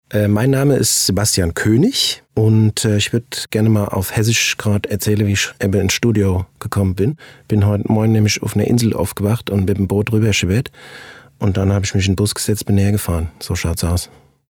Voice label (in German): Vorstellung hessisch